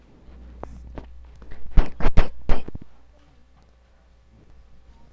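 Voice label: "pick", spoken word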